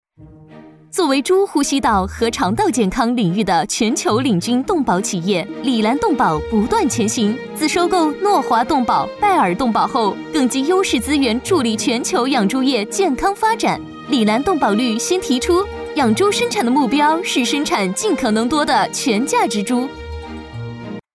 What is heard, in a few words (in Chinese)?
女, 解说, 轻快, 全价值猪; Elanco全价值猪